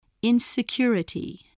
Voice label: "insecurity", voice spelling